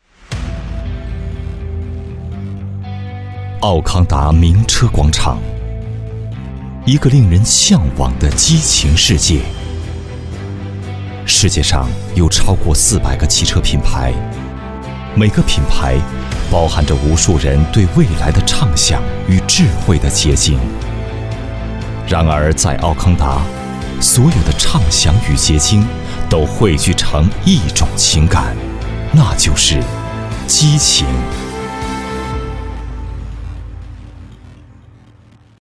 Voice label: 男, 专题; 澳康达名车广场

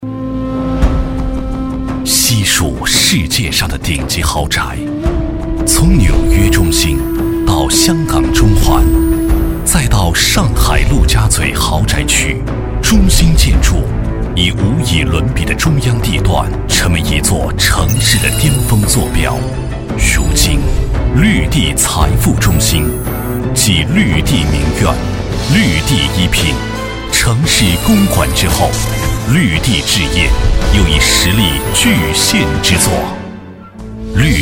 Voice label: 男 广告; 绿地财富地产

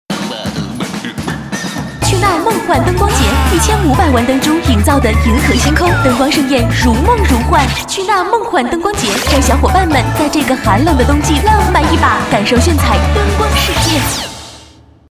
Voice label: 女-广告-欢快温柔; 梦幻灯光节

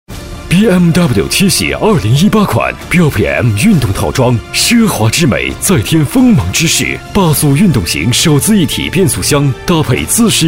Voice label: 男 广告 大气沉稳

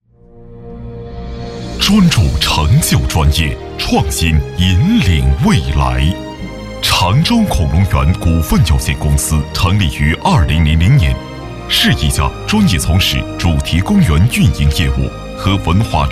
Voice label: 男 专题; 恐龙园股份

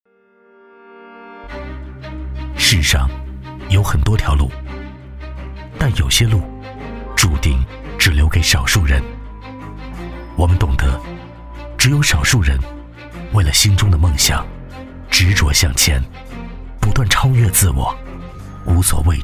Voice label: 男 旁白 情绪的渐进; 翰林教育集团五年情怀片——奔跑篇

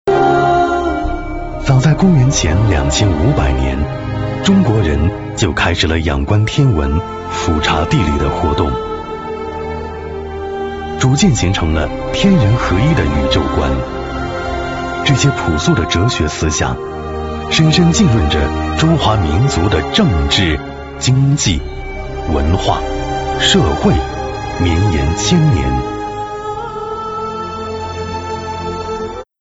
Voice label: 男 专题 史诗宏大; 宇宙简史

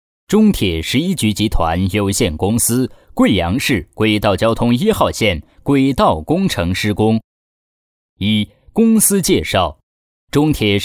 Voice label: 男43 专题; 中铁十一局

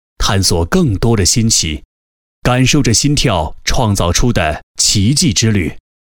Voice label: 男 专题【低沉】; 沈北产业园

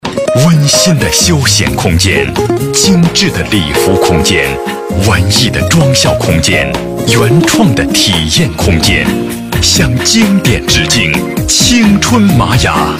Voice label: 男 广告

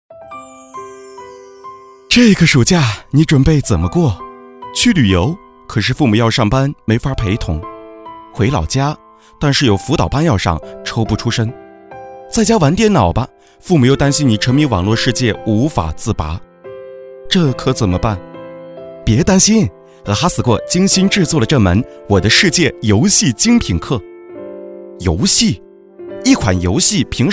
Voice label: 男 片花 预告片 年轻声音; Minecraft预告片

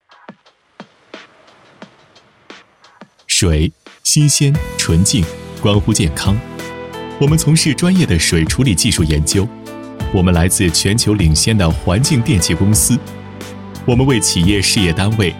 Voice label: 男 专题; 饮水处理设备公司

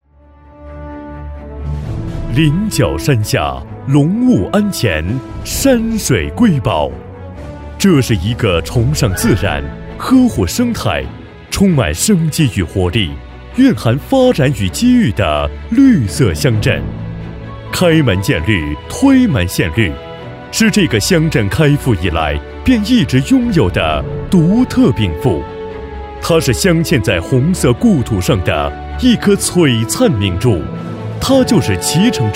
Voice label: 男 专题 政府专题 厚重大气

